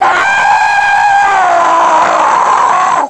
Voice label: pain; halflife; hgrunt